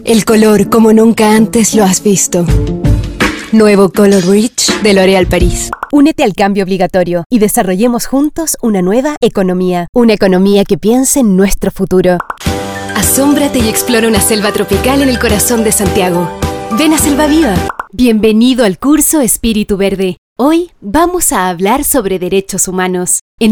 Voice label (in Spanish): neutro, español neutro